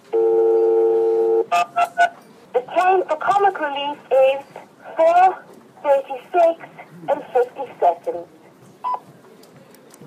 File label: speaking clock